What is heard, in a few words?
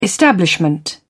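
"establishment", British English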